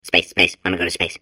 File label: babble; portal2